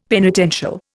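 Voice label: "penitential", Indian English; Dictionary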